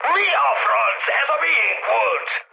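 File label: Hungarian player killed ally mood high, speech; War Thunder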